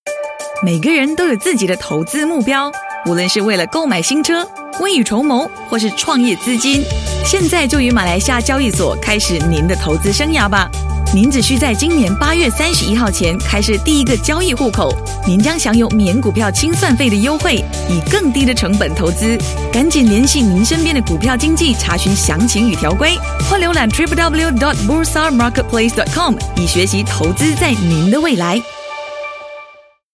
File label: Announcer